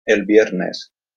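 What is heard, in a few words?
pronunciation el viernes, Spanish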